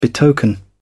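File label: "betoken", British English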